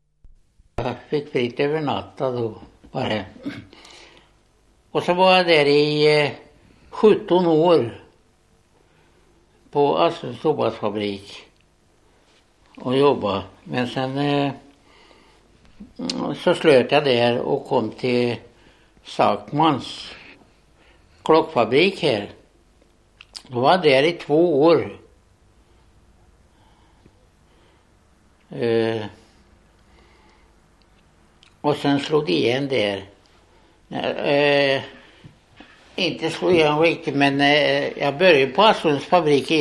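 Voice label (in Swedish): Linköping Östergötland, dialekter; Folkminnen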